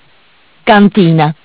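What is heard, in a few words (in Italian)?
"Cantina", Italian; Bentel; BLE320; MESSAGGI VOCALI; Audio BGS220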